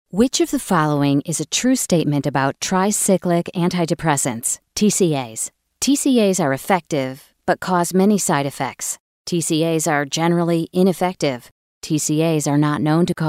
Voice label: eLearning